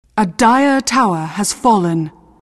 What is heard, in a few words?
announcer twr fall dire